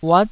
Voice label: "uat9", taiwanese